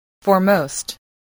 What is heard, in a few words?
"foremost", English voice, spelling